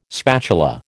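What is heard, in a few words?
"spatula", American English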